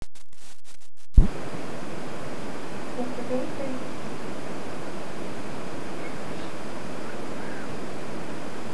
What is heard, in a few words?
whisper